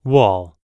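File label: "wall", English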